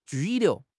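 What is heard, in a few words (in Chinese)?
"拘留", Chinese; HSK6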